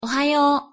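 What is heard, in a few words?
"ohayo", Japanese pronunciation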